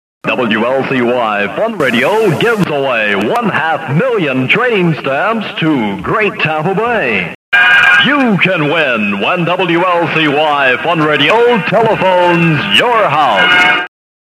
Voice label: Short promo; WLCY